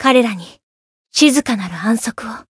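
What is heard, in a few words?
Voice win, Japanese; isuka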